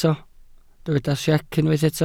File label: "siec", Welsh